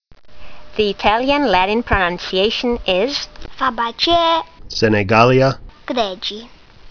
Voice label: latin; Senegalia greggii